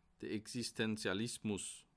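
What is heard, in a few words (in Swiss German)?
"existentialismus", Bas Rhin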